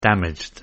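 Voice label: "damaged", English word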